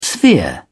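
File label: "sphere", British English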